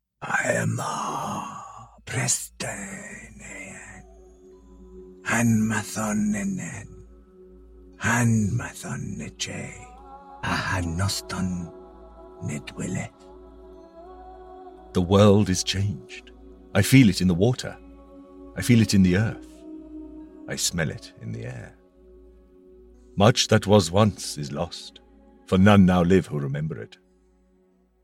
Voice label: Spirtual Mystical